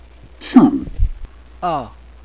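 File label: "sun", British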